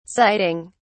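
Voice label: "siting", British English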